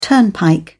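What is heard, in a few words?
"turnpike", British English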